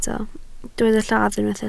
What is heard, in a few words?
"lladd", Welsh